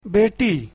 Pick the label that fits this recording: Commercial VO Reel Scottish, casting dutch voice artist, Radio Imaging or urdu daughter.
urdu daughter